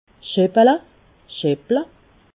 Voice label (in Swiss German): "scheppele", alsace